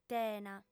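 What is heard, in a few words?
"tena", female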